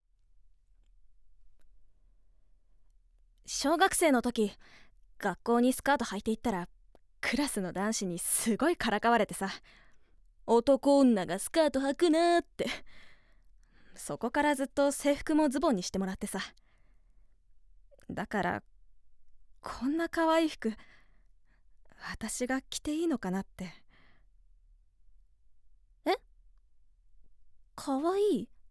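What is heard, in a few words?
voice sample, boyish girl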